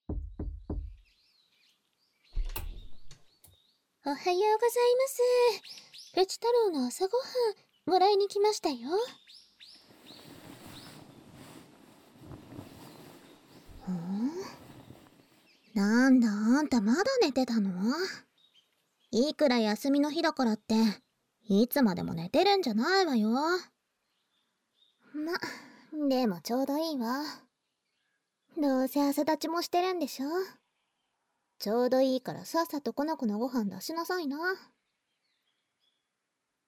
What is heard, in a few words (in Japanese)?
スライム音無し, ASMR